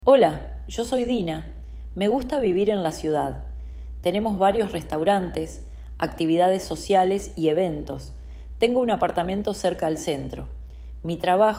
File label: Argentinian Spanish; spanish speaking countries